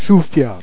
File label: "chouflieur", jerriais